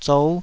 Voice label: "zou5", taiwanese